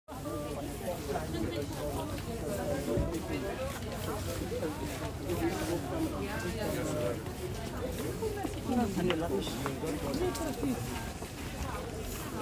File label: People talking market, Rotterdam Field Recordings